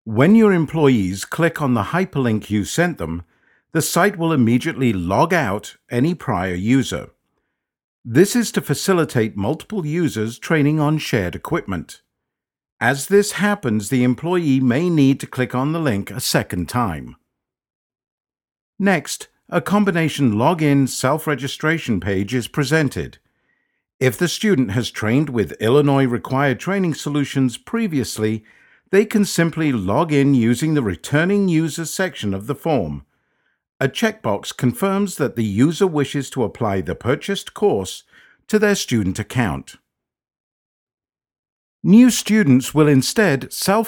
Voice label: male British voiceover corporate technical help narration